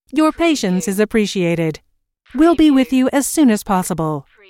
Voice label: US female phone prompt Your patience is appreciated - We will be with you as soon as possible